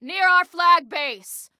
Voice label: Enemy Near Our Flag, Female

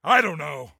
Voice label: Don't Know, Soldier Male

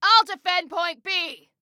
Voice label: defend, Female

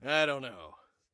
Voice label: Respond Don't Know, Soldier Male

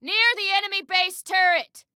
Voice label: Warn Context Enemy Near Enemy Base Turret, Female

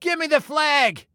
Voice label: Flag Give Me, Male

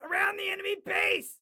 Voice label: Warn Enemy Around Enemy Base, Excited Guy

